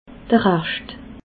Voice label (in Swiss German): "rascht", alsace